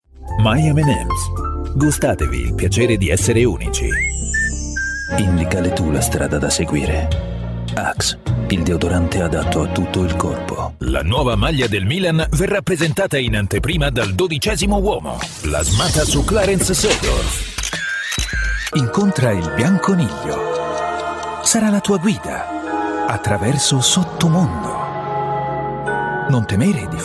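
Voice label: Italian commercial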